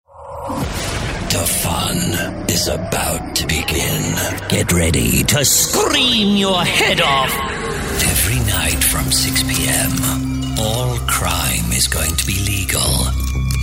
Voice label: halloween spooky showreel